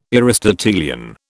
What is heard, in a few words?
"Aristotelian", British